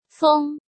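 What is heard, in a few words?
"Fēng", Chinese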